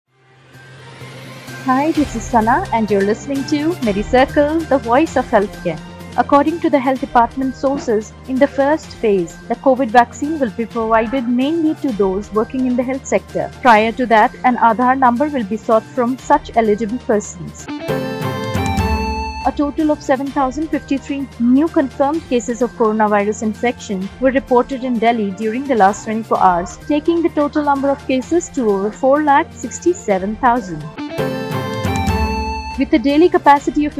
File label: english news